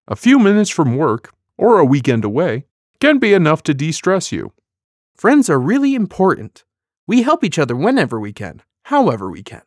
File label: Male; NIIT; Global Mental Health